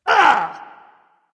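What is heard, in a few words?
ouch, dragon; fafnir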